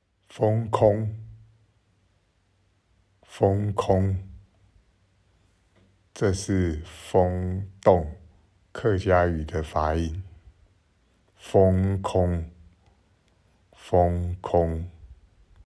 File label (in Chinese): 風空的大埔腔客語發音; 樟之細路-卓蘭段